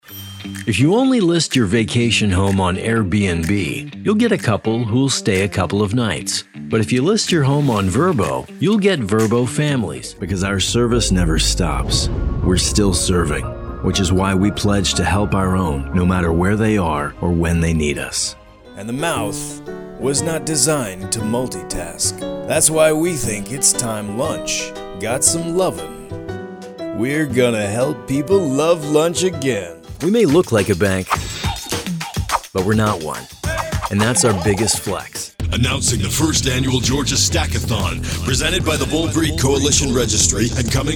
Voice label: COMMERCIAL